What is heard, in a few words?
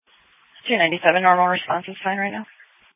Police Dispatch